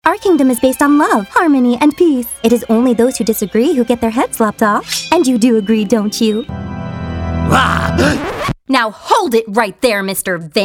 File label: Animation